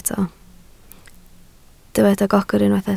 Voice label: "gogr", Welsh